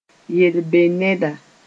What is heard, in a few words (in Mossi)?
"yel-be-neda", moore